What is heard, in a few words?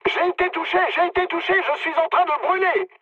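on fire, mood medium, French; War Thunder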